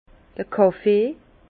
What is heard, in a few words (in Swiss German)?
"kaffee", Bas Rhin; vin; café